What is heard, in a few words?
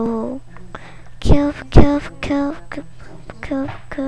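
"cube", spoken word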